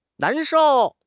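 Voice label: "nan2 shou4", Chinese; HSK4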